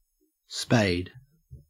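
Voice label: "spade", Australian English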